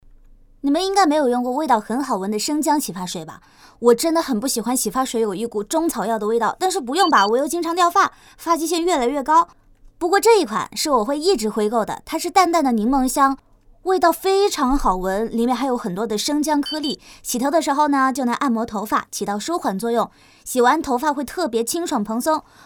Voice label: 素人感 短视频; 素人感短视频类

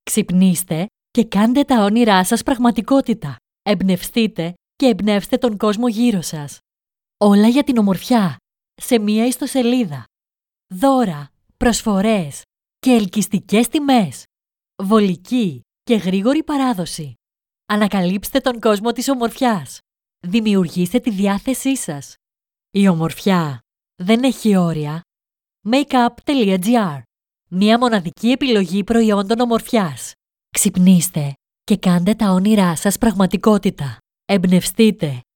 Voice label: 希腊语女自然舒缓

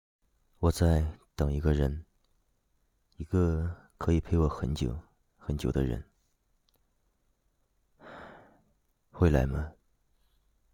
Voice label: 旁白, 深情 青叔 成熟 磁性, 男, 普通话; 我在等一个人

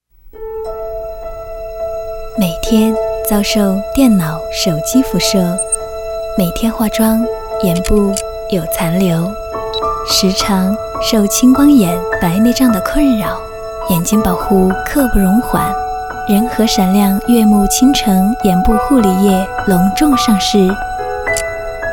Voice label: 配音

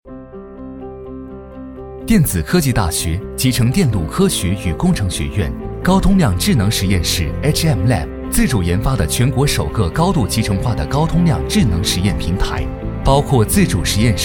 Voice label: 科技感科技讲解; 电子科技大学实验室

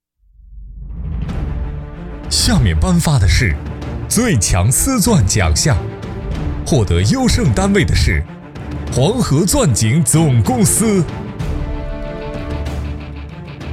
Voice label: 男颁奖

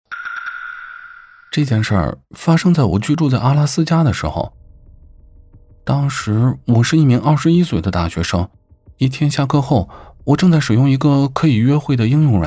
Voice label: 惊悚恐怖诡动画配音

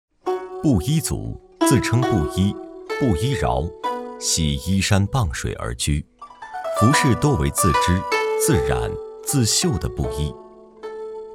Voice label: 布依族纪录片

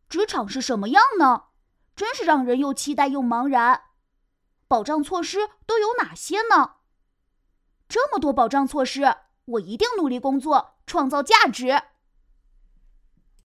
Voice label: 男童

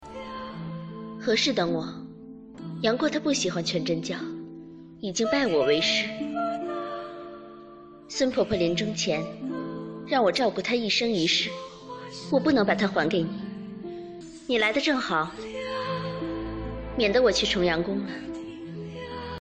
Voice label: 角色模仿; 刘亦菲小龙女

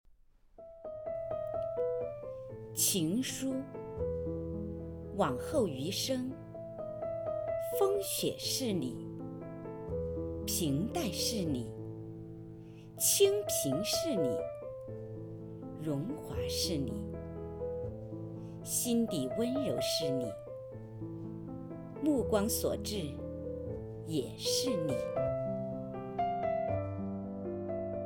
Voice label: 情书缩混立体声

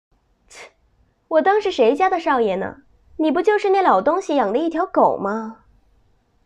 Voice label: 日常戏感练习不屑

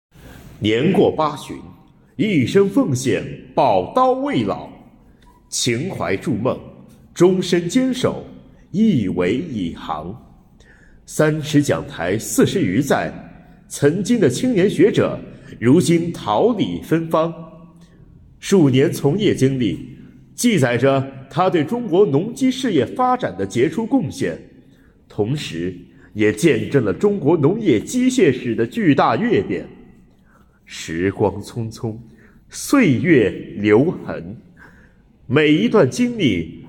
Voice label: 解说词试音, 男配音, 青年（18-35）, 普通话

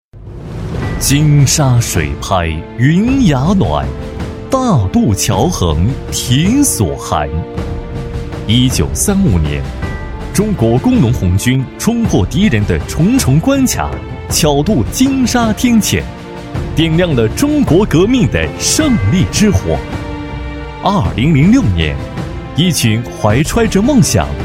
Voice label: 企宣; 大唐

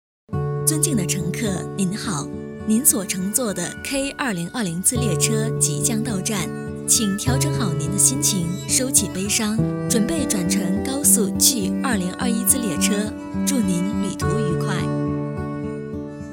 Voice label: 列车播报

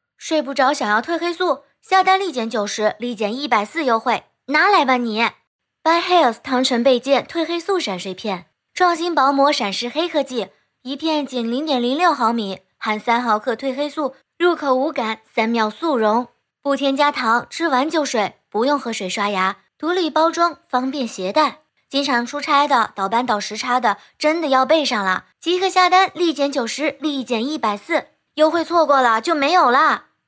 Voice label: 广告视频素人女声音

